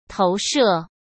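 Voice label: "投射" Mandarin Chinese; chinese flashcards; hsk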